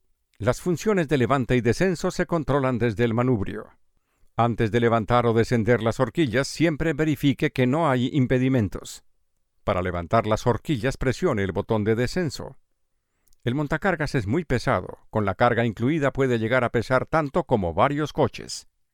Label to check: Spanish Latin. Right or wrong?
Right